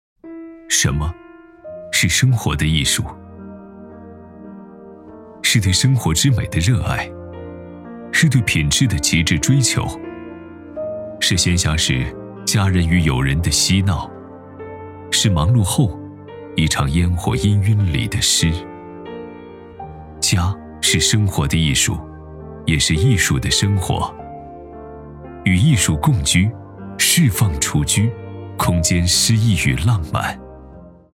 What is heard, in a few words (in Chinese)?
广告类; 卡萨帝厨居